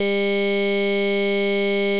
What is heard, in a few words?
female E